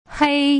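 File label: "hei", Mandarin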